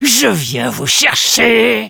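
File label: taunts, French; Spy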